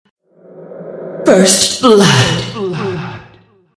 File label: music stinger first blood, female; killstreak music